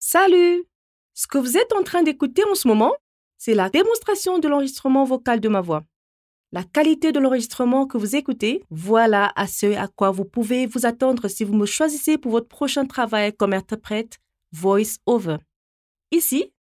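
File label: African French Senegal female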